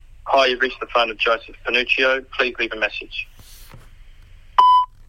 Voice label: voicemail